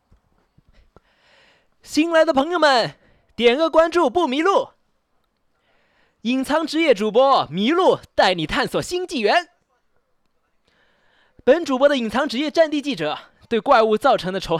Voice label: 迷路男; 雾中人

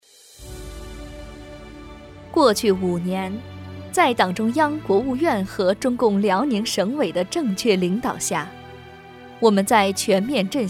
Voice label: 女党政专题

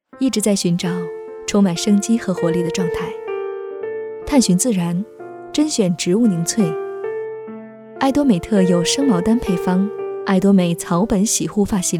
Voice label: 女广告介绍

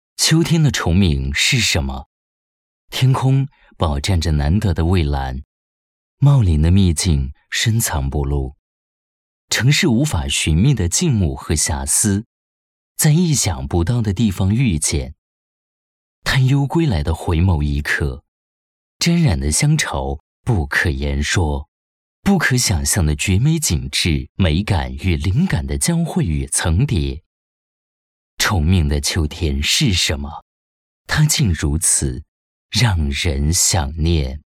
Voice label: 旁白抒情质感; 秋天的崇明是什么

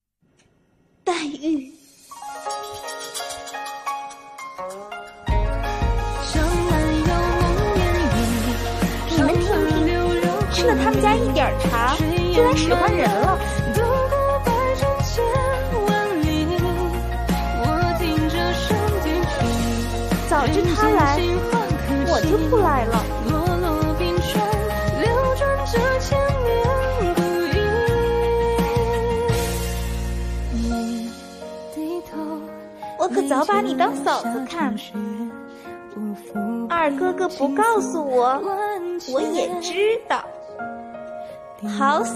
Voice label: 角色扮演 古风; 林黛玉角色扮演古风混剪影视混剪

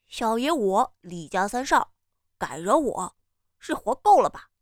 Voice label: 少年音

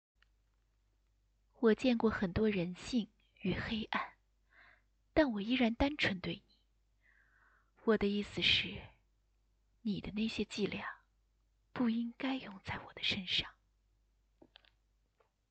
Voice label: 录音作品情感类

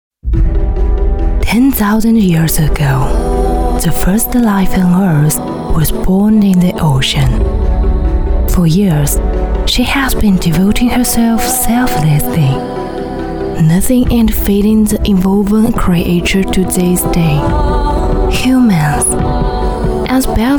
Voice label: 英语旁白大气深邃; 可再生资源

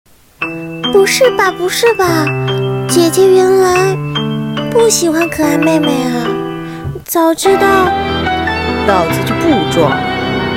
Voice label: 萝莉转御

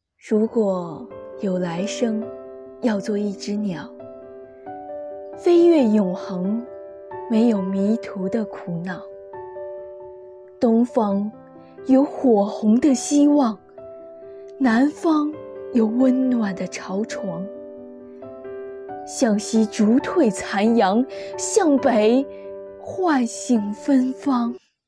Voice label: 情感

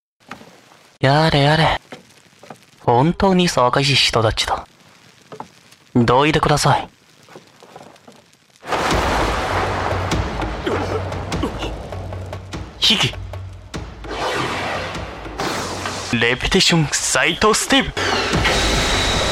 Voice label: 日籍男样音

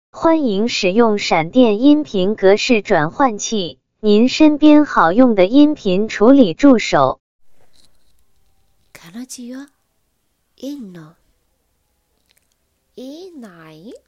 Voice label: 日语配音

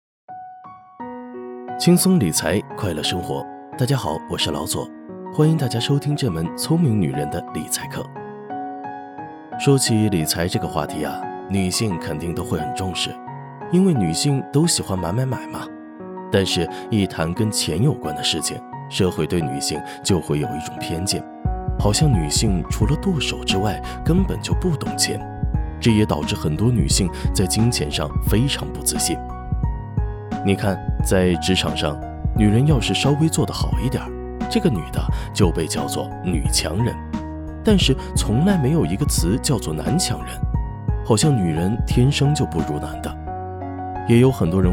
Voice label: 课件温柔亲切

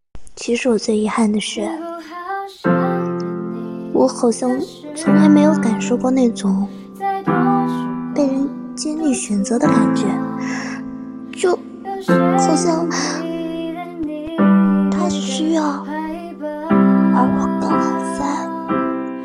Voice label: 温柔少御音

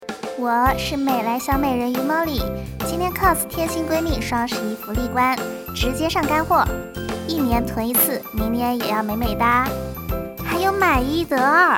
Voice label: 促销广告; 华美美莱双十一

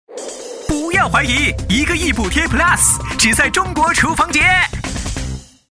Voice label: 病毒广告; 中国厨房节

